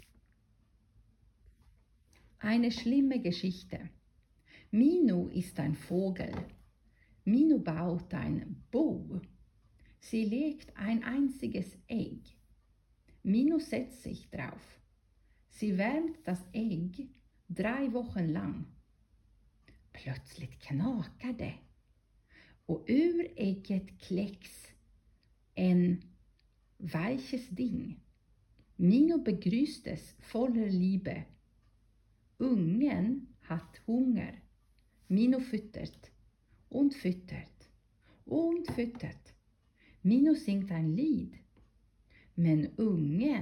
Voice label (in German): aufnahme schwedisch; geschichten mehrsprachig erzaehlen und spielen